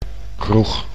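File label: "kroeg", Dutch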